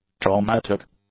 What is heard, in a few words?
"traumatic", English; adjectives